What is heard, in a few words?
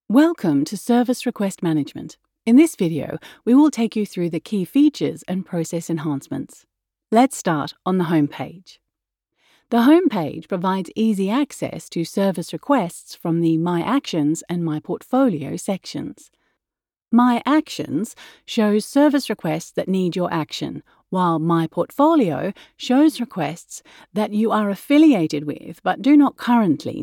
explainer software; SRM